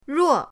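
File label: "ruo", Mandarin pinyin, fourth tone; pinyindct-open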